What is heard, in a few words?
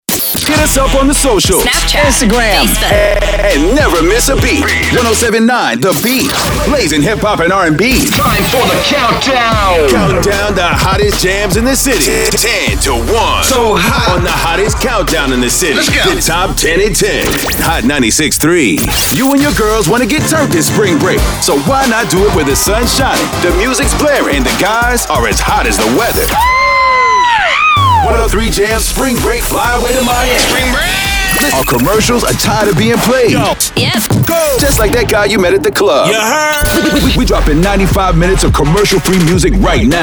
Rhythmic Imaging